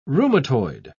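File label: "rheumatoid", British English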